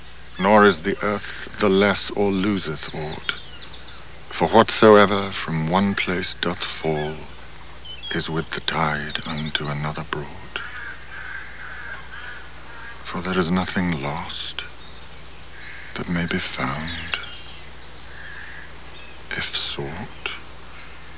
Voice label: poem